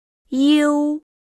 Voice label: "iou(iu)", Pinyin; PinyinChart